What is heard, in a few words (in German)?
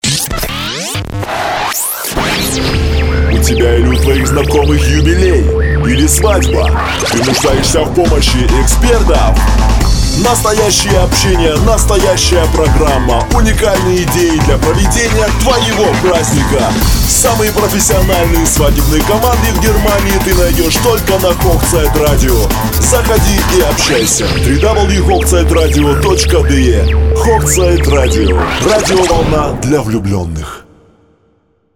werbespot